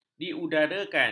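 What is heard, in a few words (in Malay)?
"diudarakan", Malay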